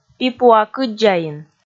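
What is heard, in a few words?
"пипуа-кыддзаин", Komi, female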